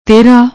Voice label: "tehraa", Punjabi; ਤਿਹਰਾ

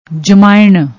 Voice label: "jamain", Punjabi

